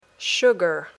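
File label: "sugar", English word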